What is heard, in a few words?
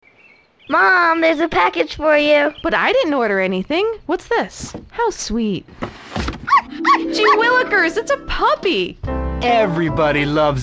commercial pets; GTA3 Radio Stations - Commercials